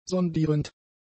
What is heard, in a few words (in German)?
"sondierend", german; adjectives